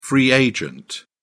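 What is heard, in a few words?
"free agent", British English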